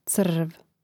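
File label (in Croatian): "crv", Hrvatski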